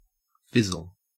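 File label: "fizzle", Australian English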